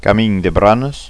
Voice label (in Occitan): "camin branas", gasconha